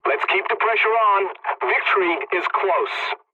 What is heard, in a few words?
pilot tickets lead continue ally, english; War Thunder